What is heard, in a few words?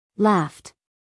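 "laughed", American English, female; 1000 hours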